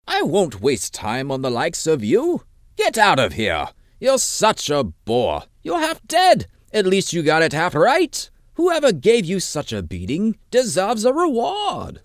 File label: breton, voice auditions